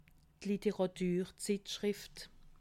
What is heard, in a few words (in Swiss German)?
"literaturzittschrift", alsace